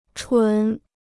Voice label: "纯", Chinese